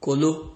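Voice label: "kolo", Nigerian Pidgin, male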